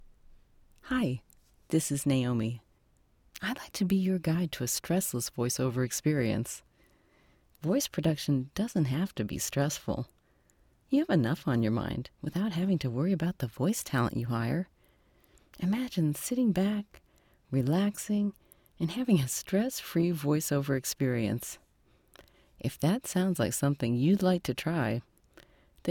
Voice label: Natural speak

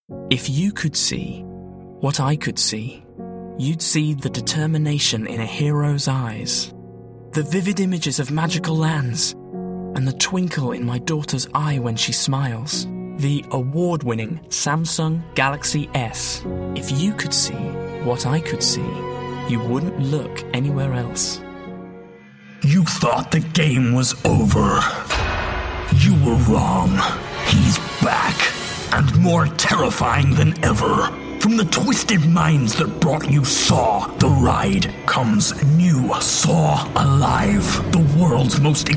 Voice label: Commercial with continuity

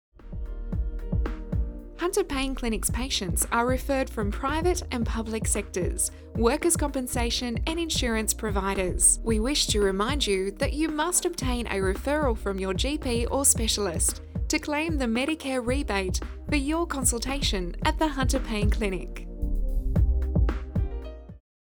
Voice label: Messages on Hold

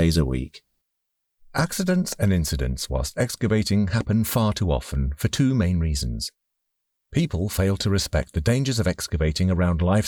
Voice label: e-Learning

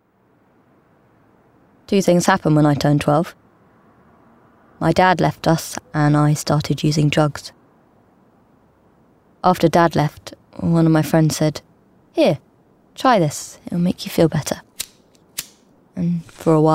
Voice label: NARRATIVE; BeingHomeless

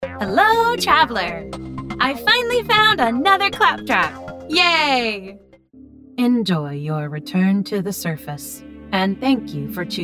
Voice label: Robot

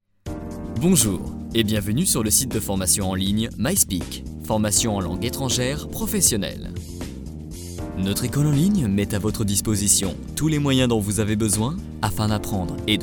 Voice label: Corporate voix off

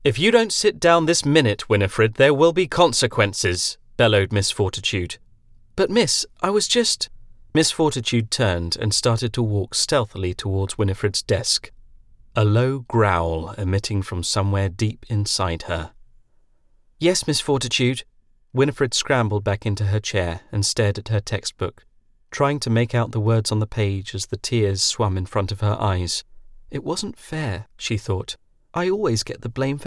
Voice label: Audiobook

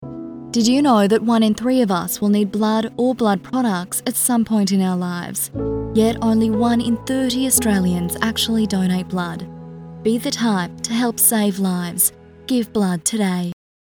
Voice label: Donate Blood Commercial

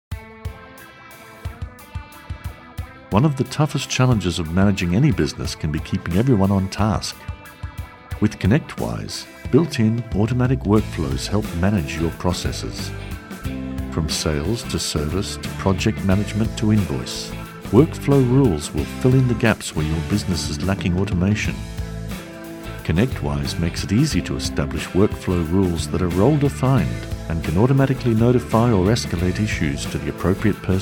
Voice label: Voice Over; Connect Wise

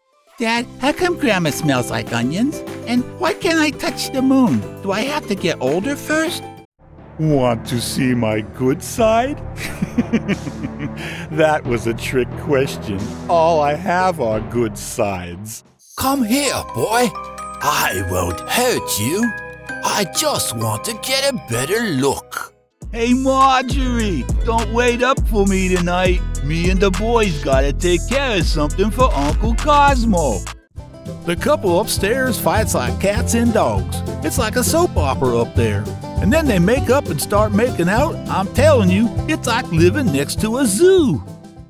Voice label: Characters Variety

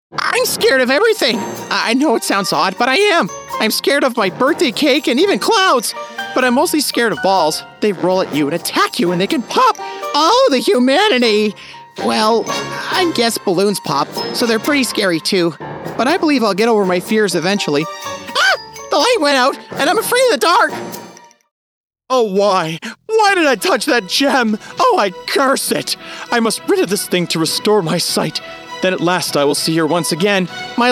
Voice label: Animation

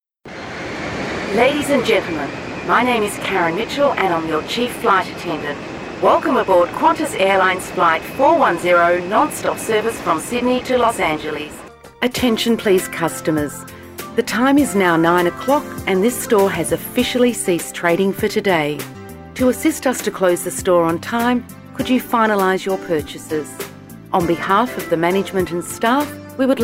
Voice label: Announcements

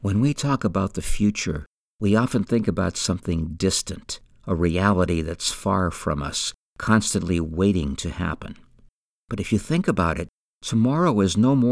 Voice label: E-learning

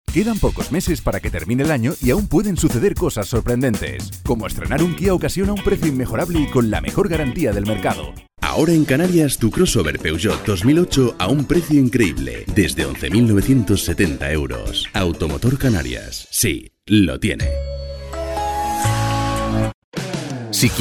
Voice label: COMMERCIALS

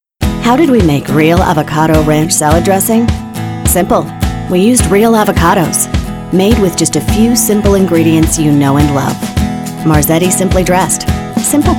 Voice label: Commercial Narration

